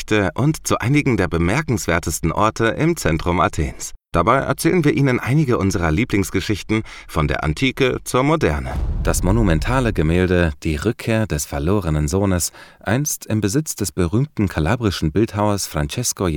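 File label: audio guide museum, German Voice Artist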